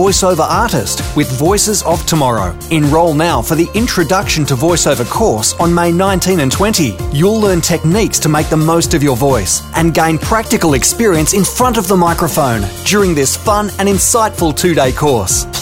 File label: Radio